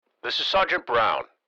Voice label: Military radio voice; this is Sergeant Brown

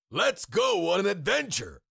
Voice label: Cartoon lion voice; lets go on adventure

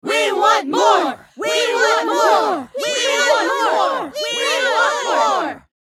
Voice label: Female voices chanting we want more; crowd

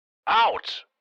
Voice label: Military radio voice command "out"

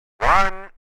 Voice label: CB radio male voice says

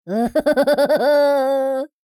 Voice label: surprise exclamation voice; Minion; minions

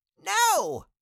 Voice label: Cartoon little child voice "no"

